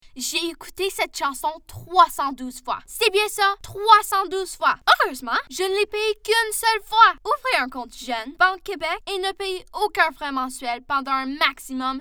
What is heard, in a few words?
French Commercial